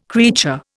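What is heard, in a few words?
"creature", Indian English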